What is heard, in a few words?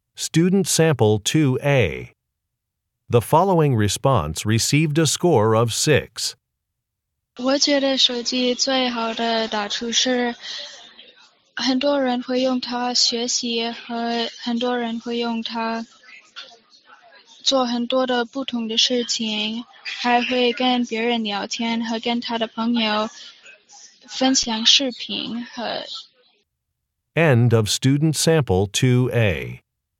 chinese conversation